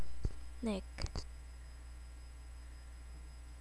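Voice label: "neck", spoken word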